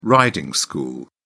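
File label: "riding school", British English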